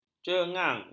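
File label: "cengang", Malay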